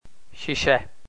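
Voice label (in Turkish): "sise", Turkish, male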